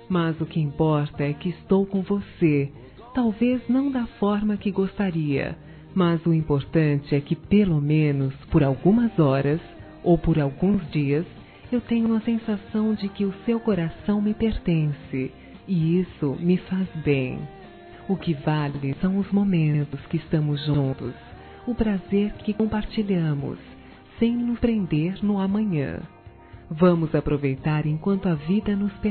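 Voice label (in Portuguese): Namorados pra Quem Ta Ficando, female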